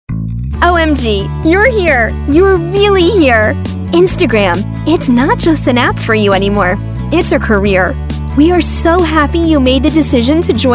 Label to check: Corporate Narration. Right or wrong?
Wrong